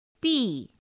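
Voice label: "bì", Mandarin Chinese pinyin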